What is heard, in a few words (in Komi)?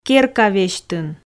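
"керка весьтын", Komi, female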